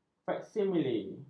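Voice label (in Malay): "faksimile", Malay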